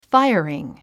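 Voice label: "firing", English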